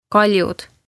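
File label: "Kaljud", Estonian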